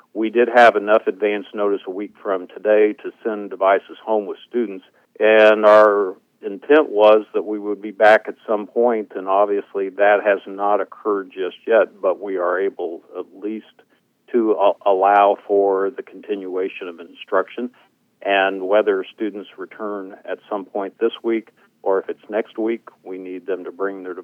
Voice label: e-learning